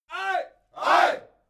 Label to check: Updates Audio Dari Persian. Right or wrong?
Wrong